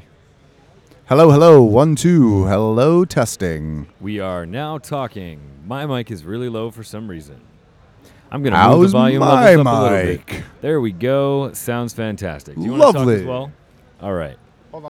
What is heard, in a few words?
Male Radio; NYCC 2013